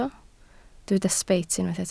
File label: "sbeit", Welsh